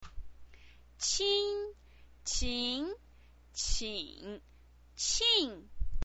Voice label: "qin", chinese pinyin